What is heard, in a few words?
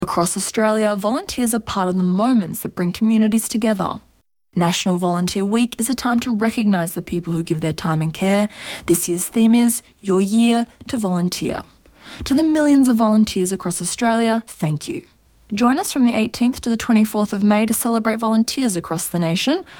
Community Service Announcement; nationalvolunteerweek